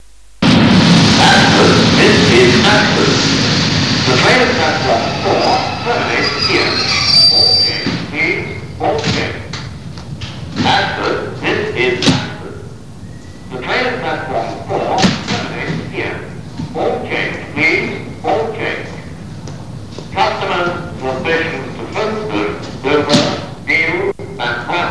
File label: pre recorded platform announcement; year 1988